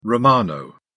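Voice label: "romano", English, British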